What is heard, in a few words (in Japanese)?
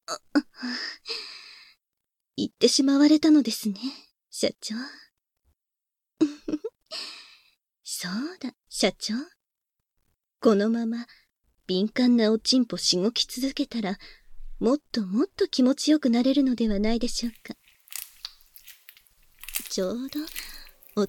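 連続射精用パート（バイノーラルマイク収録 効果音あり大きめ）; バイノーラル編集; 新効果音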